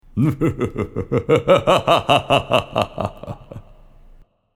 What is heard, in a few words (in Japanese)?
魔王の声; 魔王の笑い声[3]